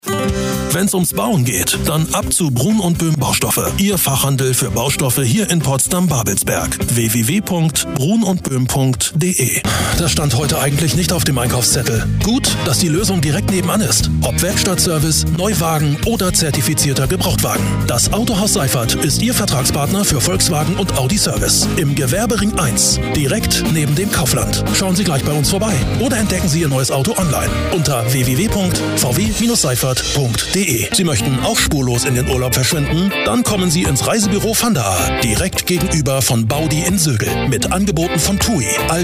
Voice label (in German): Werbespots; Spotpiraten